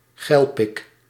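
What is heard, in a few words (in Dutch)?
"geilpik", Dutch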